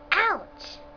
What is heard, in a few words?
"Ouch", human